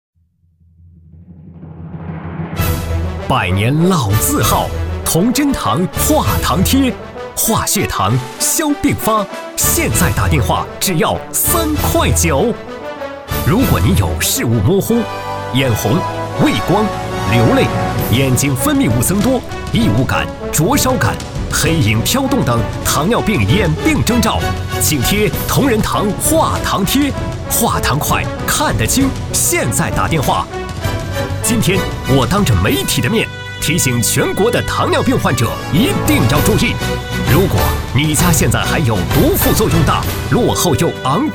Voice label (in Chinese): 男 医药电购; 同珍堂化糖贴